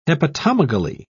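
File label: "hepatomegaly", British English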